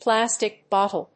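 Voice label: "plastic-bottle", English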